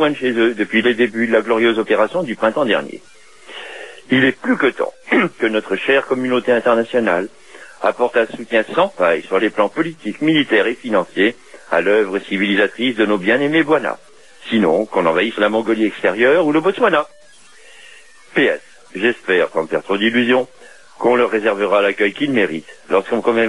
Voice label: Irak repondeur

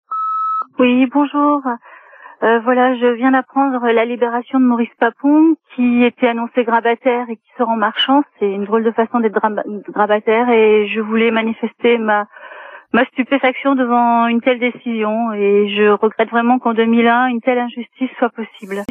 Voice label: Liberation Papon repondeur

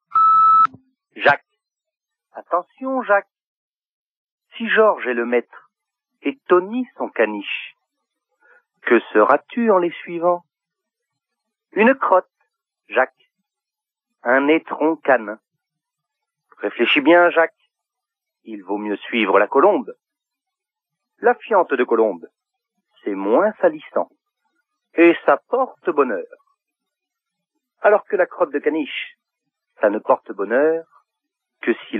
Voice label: Irak repondeur

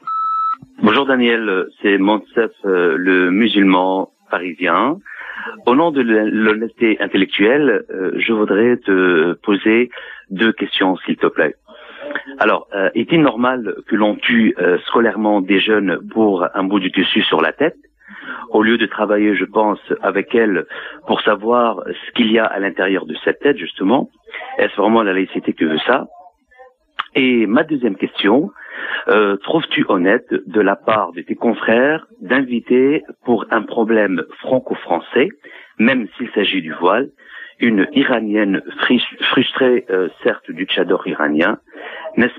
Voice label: répondeur

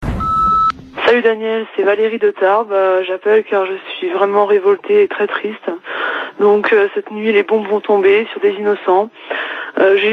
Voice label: Irak Toulouse repondeur

